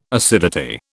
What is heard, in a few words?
"acidity", British